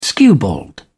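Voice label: "skewbald", British English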